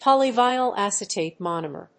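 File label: "polyvinyl acetate monomer", English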